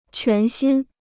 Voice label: "全新", Chinese